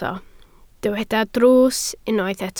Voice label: "drws", Welsh